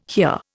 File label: "hear", Indian